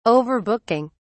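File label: "overbooking", British English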